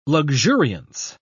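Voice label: "luxuriance", British English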